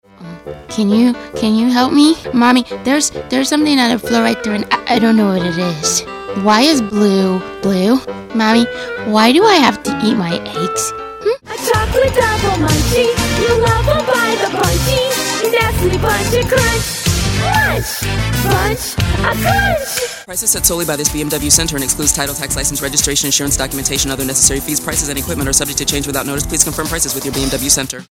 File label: Animation